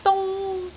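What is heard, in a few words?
"dung1", Cantonese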